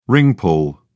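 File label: "ring pull", British English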